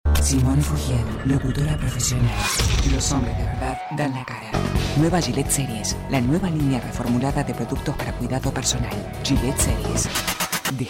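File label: latam uruguayan female commercial; voice demos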